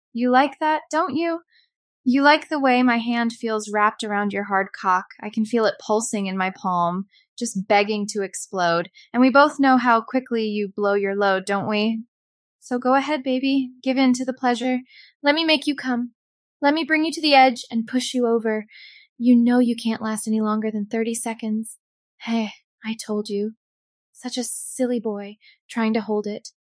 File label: synthesized audio